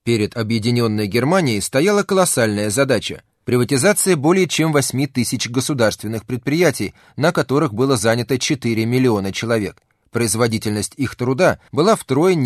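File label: Russian, male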